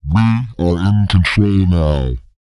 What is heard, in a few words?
pitch low; ILIO; Vocal Planet